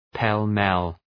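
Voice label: "pell-mell", English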